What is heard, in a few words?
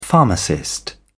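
"pharmacist", English, British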